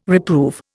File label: "reprove", Indian